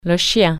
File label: dog, french